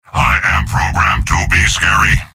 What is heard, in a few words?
soldier robot; mvm